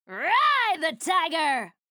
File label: menu chatter; slash; frag